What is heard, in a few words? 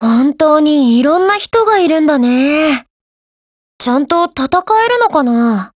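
FORMATION, Japanese; Type62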